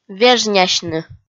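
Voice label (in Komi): "вежнясьны", Komi, female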